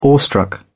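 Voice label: "awestruck", British English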